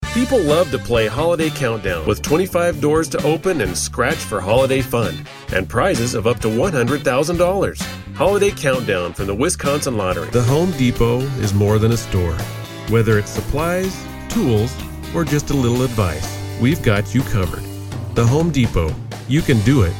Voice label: Commercial, Professional voice-over